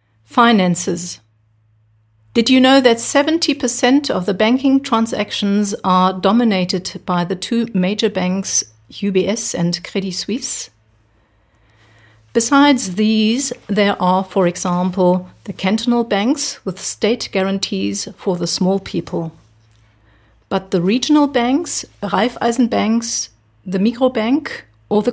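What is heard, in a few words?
English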